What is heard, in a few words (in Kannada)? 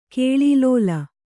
"ಕಾಲಲೀಲೆ", kannada